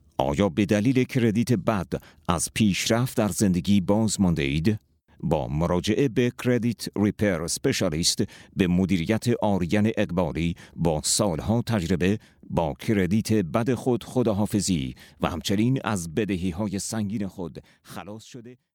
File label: Farsi Native